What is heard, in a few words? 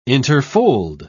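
"interfold", English, British English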